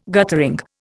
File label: "guttering", Indian